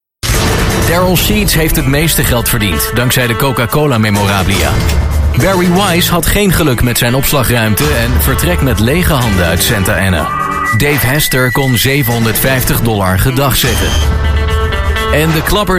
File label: Flemish Narration, Male